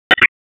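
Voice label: Police Southwest District Dispatch, p25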